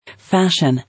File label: "Fashion", English